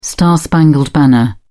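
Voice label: "the star-spangled banner", British English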